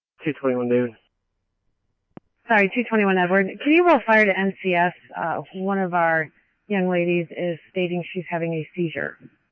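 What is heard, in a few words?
scanner, Phoenix Police Department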